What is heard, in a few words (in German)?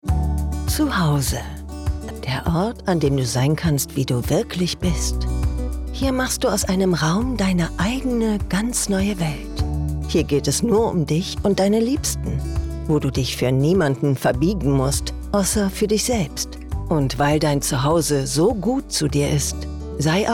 Werbung Moebel